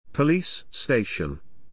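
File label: "Police station", English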